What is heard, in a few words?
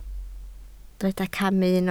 "camu", Welsh